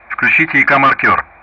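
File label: Speech Russian; Common Player Messages SPARKLE; DCS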